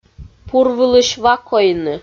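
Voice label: "пур вылысь ва койны", Komi, female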